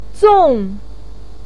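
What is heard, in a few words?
"zong4", Mandarin pinyin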